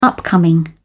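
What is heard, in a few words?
"upcoming", British English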